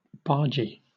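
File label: "bargee", British English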